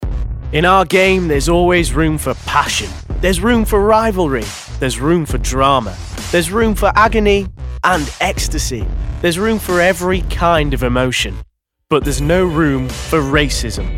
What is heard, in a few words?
Commercials, 20s-30s. Male. Sheffield